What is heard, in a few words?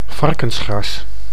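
"varkensgras", Dutch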